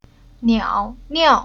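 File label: "Niao", Chinese syllable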